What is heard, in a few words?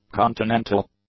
"continental", English; adjectives